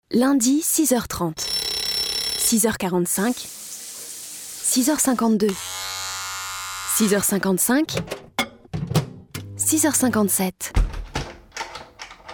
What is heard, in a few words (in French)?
radio pub spot